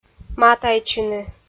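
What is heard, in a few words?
"матайтчыны", Komi, female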